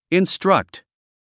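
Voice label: "instruct", English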